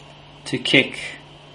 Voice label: "to kick", English